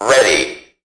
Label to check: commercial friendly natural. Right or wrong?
Wrong